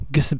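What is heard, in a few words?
moroccan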